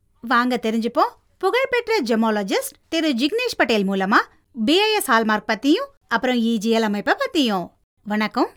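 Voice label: Tamil, female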